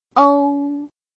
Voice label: "ㄡ", Chinese

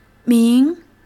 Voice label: "名", Chinese

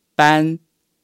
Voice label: "班", Chinese

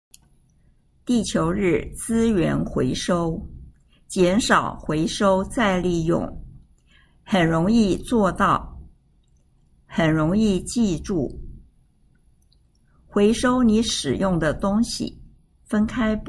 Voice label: Narration

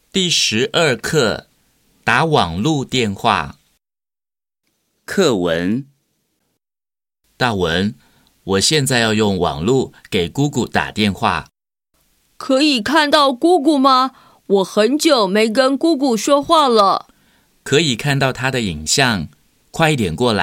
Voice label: Text Chinese

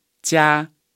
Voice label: "家", Chinese

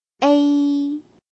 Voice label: "ㄟ", Chinese

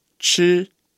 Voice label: "吃", Chinese

